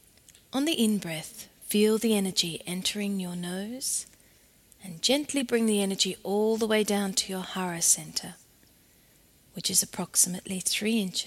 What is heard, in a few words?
grounding meditation